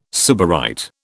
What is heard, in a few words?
"sybarite", British